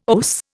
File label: "OAS", Indian